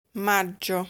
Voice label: "Maggio", Italian